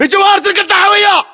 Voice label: landmark near cargocontainer, localized english battlechatter